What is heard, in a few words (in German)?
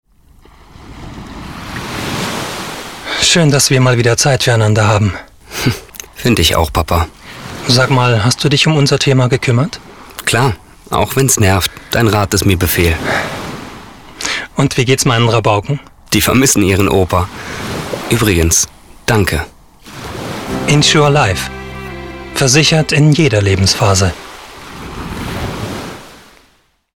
Dialog